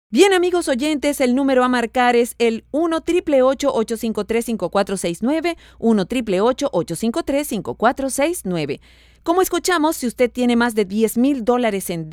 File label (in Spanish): presentadora PARA RADIO